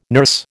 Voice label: "nurse", American